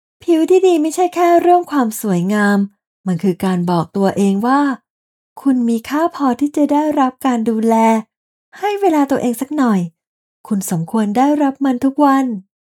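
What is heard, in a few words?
Ads Beauty, Radio Commercials, Female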